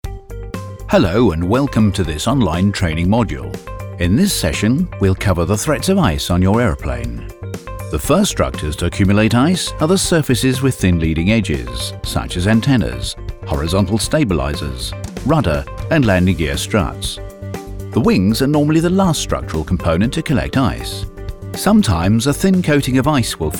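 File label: e-learning narrative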